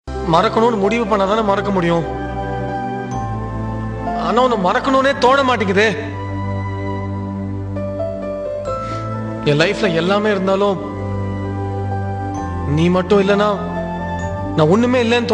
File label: Tamil Dialog